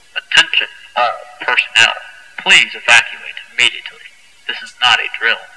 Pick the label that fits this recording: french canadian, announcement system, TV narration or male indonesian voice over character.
announcement system